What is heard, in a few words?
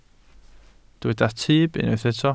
"tyb", Welsh